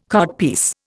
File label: "codpiece", Indian